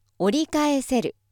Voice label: female